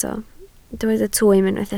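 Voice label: "twym" Welsh, spoken word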